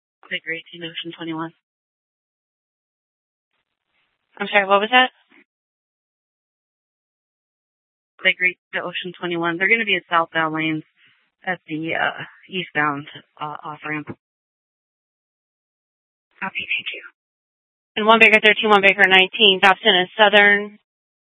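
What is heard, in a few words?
police dispatch, scanner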